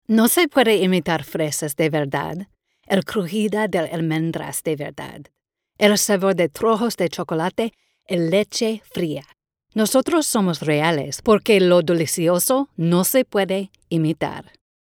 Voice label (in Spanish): Spanish Voice